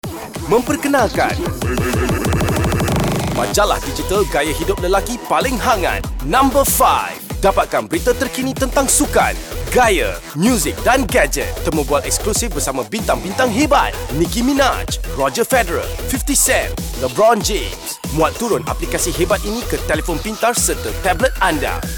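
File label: Malay; ASTRO DIGITAL MAG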